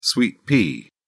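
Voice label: "sweet pea", British English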